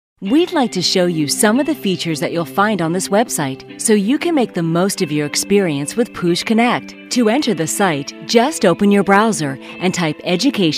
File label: E-learning